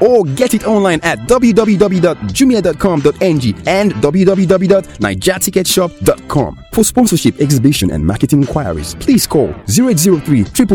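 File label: Nigerian English Lagos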